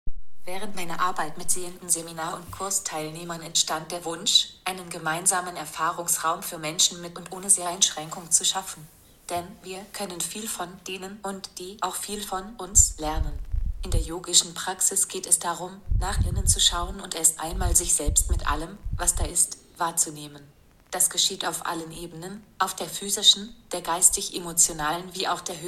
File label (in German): Blindyoga Sprachtext